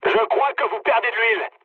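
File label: damaged oil, mood medium, French; War Thunder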